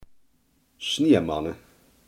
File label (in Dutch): "sneeuwpop", Limburgs